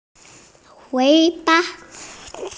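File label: "wei3ba5", Mandarin Chinese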